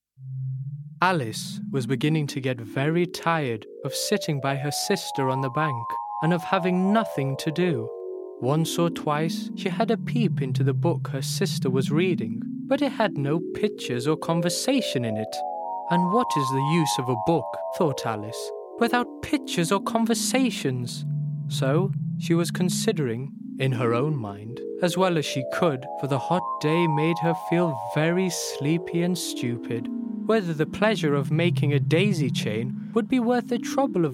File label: Audiobook